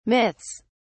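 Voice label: "myths", British English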